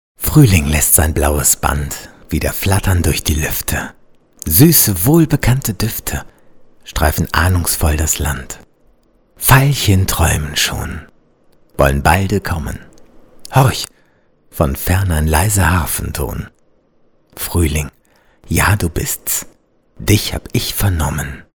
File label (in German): Schauspiel sanft